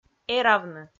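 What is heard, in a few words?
"эравны", Komi, female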